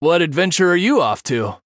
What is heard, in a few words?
Male Human New Game